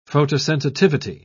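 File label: "photosensitivity", British English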